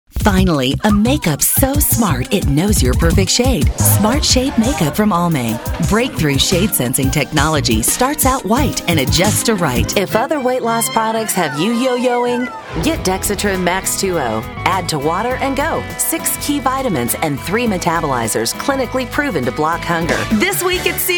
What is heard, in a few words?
Commercial